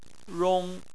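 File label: "rong", Chinese, tone 1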